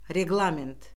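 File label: "reqlament", Azerbaijani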